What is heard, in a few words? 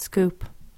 "scoop", english